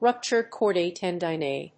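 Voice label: "ruptured chordae tendineae", English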